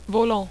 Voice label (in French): "volant", French